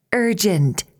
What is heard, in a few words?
"urgent", english